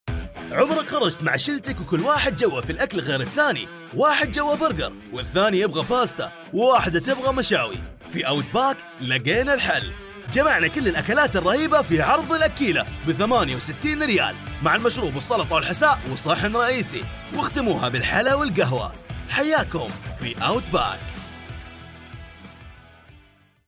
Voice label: Arabic Radio TV Commercial Voice